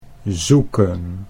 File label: "zoeken", Dutch; 'to search (for?)'; think of: 'to seek'